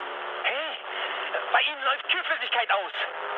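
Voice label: German damaged water mood high, speech; War Thunder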